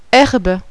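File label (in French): "herbe", French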